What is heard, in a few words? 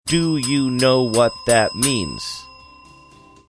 saying do you know what that means dinging bell each word